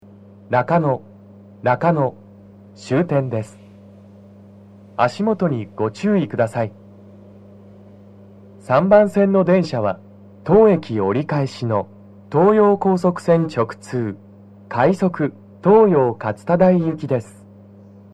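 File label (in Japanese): 到着放送, 男声; 放送は「快速 東葉勝田台行」です。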